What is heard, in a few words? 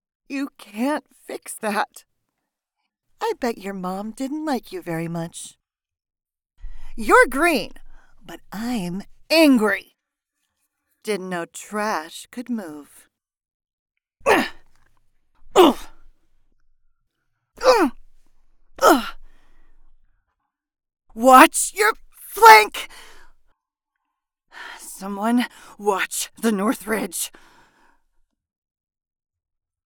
Recruit Female